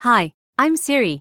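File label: South African English; iOS Sounds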